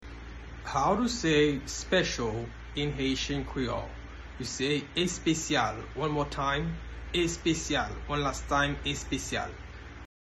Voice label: Special in Haitian Creole Espesyal pronunciation by a Haitian teacher